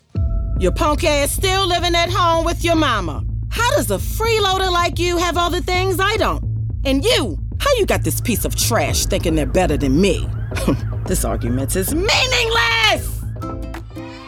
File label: Narration